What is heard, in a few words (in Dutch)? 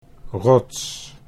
"rots", Dutch